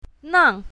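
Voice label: "nang4", pinyin, south, female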